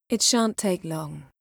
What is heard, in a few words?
female